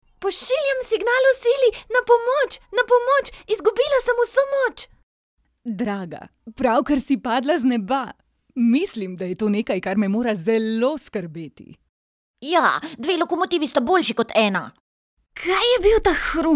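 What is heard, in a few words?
doblaje, Slovenian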